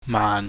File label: "maan", Chinese